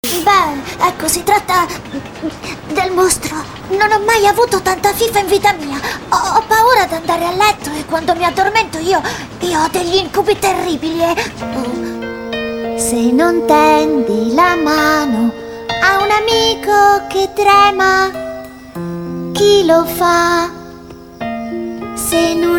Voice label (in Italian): Cartone animato doppiaggio VOCE E CANTO; FIEVEL